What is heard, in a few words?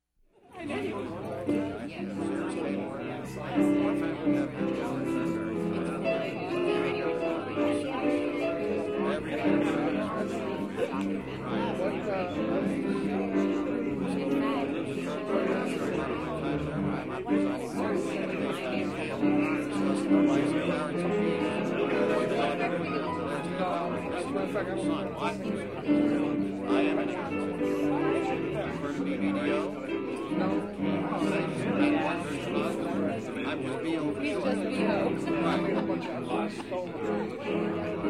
People talking with live music